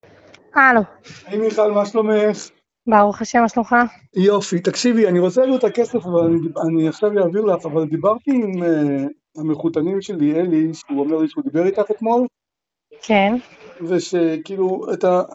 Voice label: Call recording